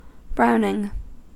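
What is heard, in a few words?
"browning", US English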